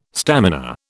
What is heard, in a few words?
"stamina", British